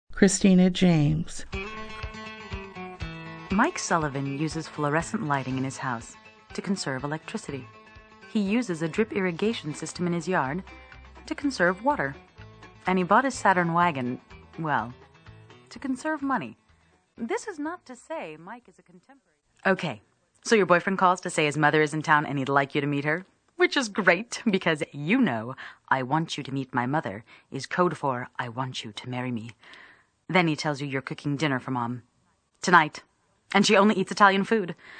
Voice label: female voice